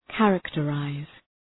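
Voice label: "characterize", English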